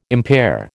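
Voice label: "impair", American English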